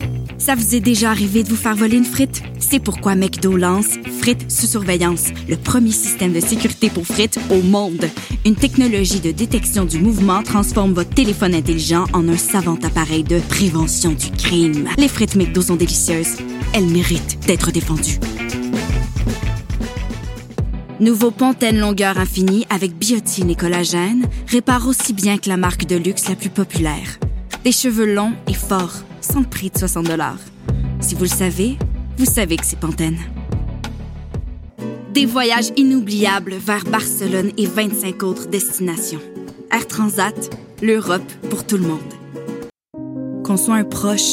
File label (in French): annonceur